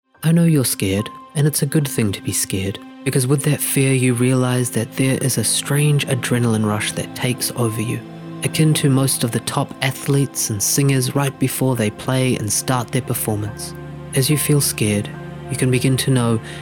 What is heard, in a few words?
Meditation, en-AU